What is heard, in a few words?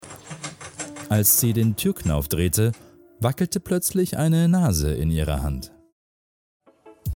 german narration